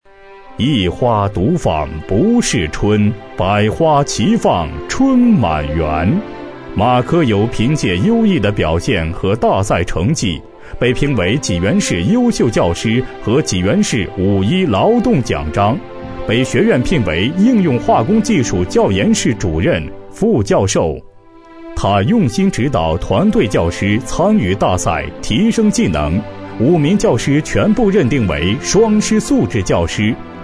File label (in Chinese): 男声 大国工匠人物介绍专题片